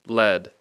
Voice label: "lead", English